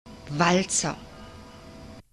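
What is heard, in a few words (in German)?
"walzer", German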